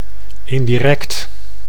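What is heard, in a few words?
"indirect", Dutch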